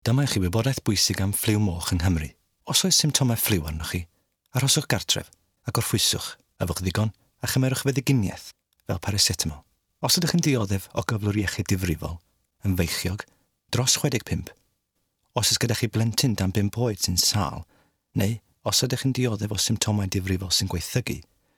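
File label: Welsh, General; GoLocalise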